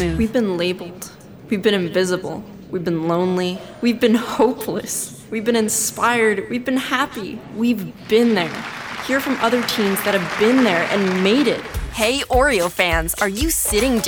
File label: women commercial; Sophisticated "cool girl" with a quirky twist.